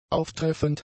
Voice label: "auftreffend", German; adjectives

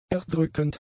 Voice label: "erdrückend", German; adjectives

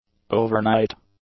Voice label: "overnight", English; adjectives

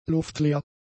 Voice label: "luftleer", German; adjectives

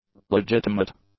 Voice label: "legitimate", english; adjectives

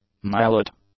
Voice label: "mallet", English; nouns

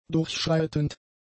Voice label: "durchschreitend", german; adjectives

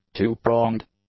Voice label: "two-pronged", English; adjectives

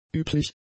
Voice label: "üblich", German; adjectives

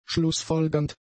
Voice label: "schlussfolgernd", German; adjectives

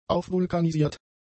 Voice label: "aufvulkanisiert", German; adjectives

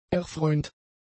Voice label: "erfreuend", German; adjectives

